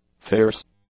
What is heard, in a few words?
"fierce", english; adjectives